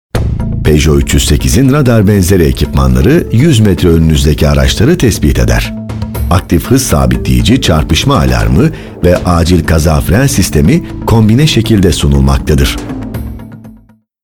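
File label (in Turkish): tanıtım, Turkish; peugeot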